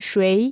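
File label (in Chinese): "谁" Chinese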